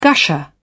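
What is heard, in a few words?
"gusher", British English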